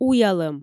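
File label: "уялӧм" Komi, female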